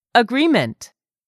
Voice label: "AGREEMENT", english; 3000 words